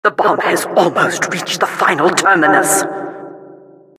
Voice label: announcer cart defender final warning